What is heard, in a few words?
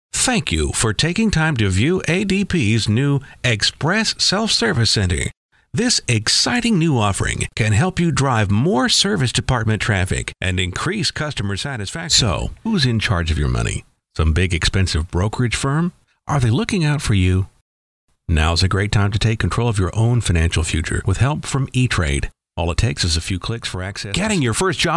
PODCAST; Podcast Demo #2